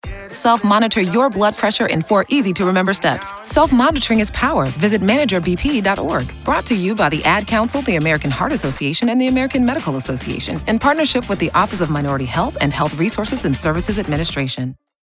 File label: English Radio